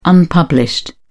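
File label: "unpublished", British English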